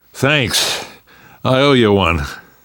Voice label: thanks, namvet, survivor voice; Left 4 Dead 2; left4dead2 dlc2